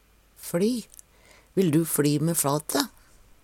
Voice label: fLi, Numedalsmål; Vil du fLi me fate?; Verb

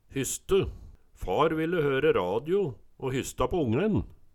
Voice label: hyste, Numedalsmål

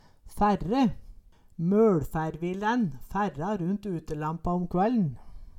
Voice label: færre, Numedalsmål

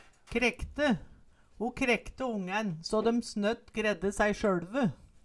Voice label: krekkte, Numedalsmål

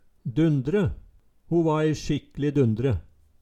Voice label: "dundre", Numedalsmål

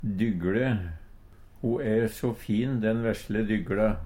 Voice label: "dygLe", Numedalsmål; Substantiv hokjønn

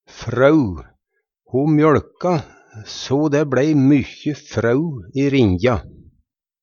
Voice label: "frøu", Numedalsmål; mest brukt om mjølk

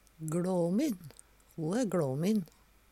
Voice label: glamin, Numedalsmål; Adjektiv

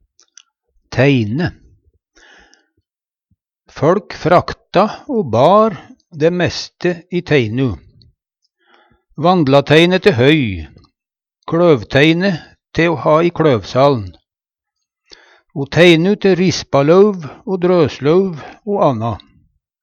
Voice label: teine, Numedalsmål

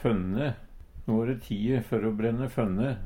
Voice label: "fønne", Numedalsmål; No ere tie før o brenne fønne.

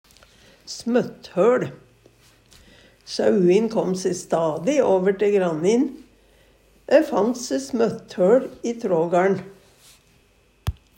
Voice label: smøtthøL, Numedalsmål; Eksempel på bruk Sauin kom se stadig over te grannin. Dei fant se smøtthøL i trågaLn.

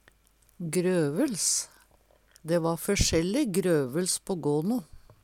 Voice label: grøvels, Numedalsmål

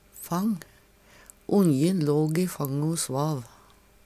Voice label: fang, Numedalsmål

